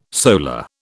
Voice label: "solar", British